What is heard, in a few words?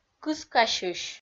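"кыскасьысь", Komi, female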